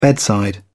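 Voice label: "bedside", English, British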